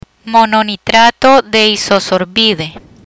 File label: "Mononitrato de isosorbide", Spanish